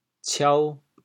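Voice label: "ciao2", Teochew, chaoyang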